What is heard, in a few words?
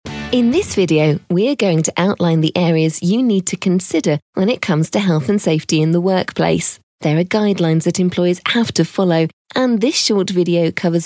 explainer voicover